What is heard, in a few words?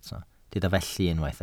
"felly", Welsh